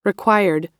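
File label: "required", American English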